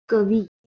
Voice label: "kavi", Marathi

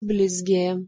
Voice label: "blizge", Lithuanian

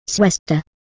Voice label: "sweostor", Anglo Saxon

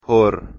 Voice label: "phor", Balochi

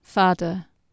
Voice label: "faeder", Anglo Saxon

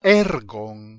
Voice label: "ergon" Ancient Greek

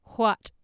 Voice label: "hwaet", Anglo Saxon

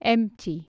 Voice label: "aemtig" Anglo Saxon

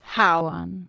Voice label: "hawwan", Proto-Germanic

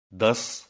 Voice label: "das", Digor

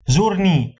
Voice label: "zurni", Luwian

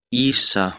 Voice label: "isa", Proto Germanic

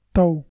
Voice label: "tau", Balochi

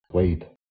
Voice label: "wide", Scots

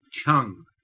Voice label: "chang", Romani

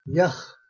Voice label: "yakh", Urdu

